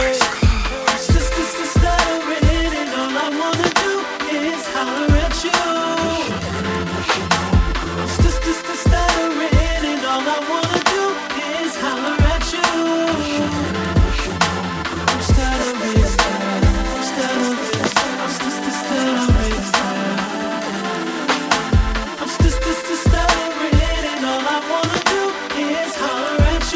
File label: Stuttering